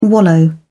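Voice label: "wallow", English, British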